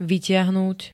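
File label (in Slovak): "vytiahnuť", Slovak; ťahaním dostať von, vybrať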